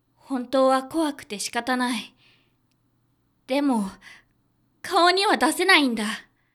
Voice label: 女性; 女性 「本当は怖くて仕方ない。でも、顔には出せないんだ。」; ボイス

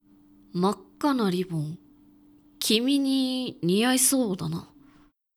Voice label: dansei makkanaribonkimininiaisoudana, クール; 男性 「真っ赤なリボン、君に似合いそうだな。」; ボイス

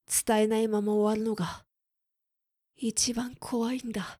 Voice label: クール男性; 男性 「伝えないまま終わるのが、一番怖いんだ。」; ボイス

